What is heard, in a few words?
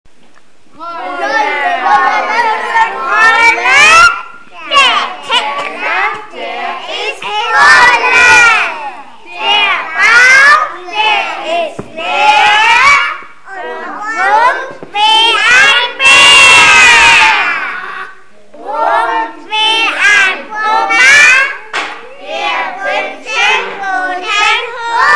kids reciting rolle rolle rolle